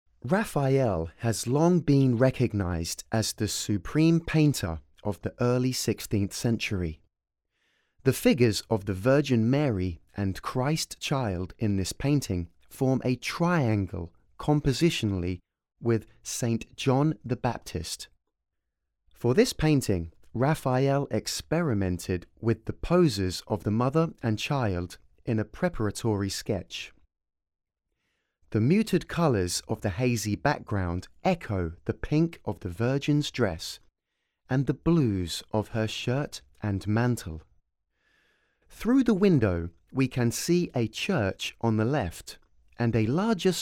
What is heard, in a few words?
English, audio guide